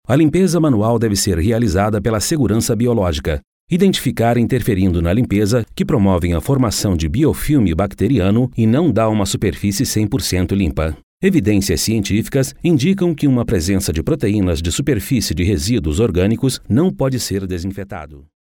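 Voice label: Brazilian Portuguese, male, e-learning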